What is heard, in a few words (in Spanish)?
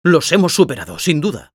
Spy cheers, es